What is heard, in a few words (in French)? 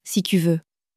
"si tu veux", French